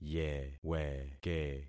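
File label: "yeweke", concatenated syllables; Affixing experiment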